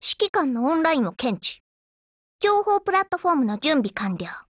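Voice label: HELLO, Japanese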